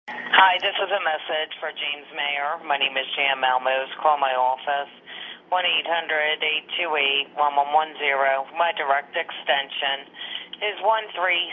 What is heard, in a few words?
Voicemail